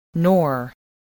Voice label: "nor", voice, spelling

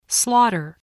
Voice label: "slaughter", voice spelling

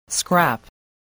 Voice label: "scrap", english spelling

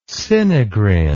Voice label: "sinigrin", words

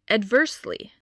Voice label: "adversely", English voice

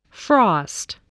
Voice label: "frost", English voice, spelling

